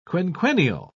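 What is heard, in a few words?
"quinquennial", English, uk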